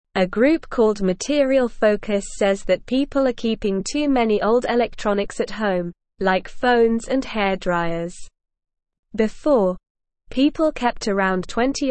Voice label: English SLOW Reading; Recycle Old Gadgets to Help the Earth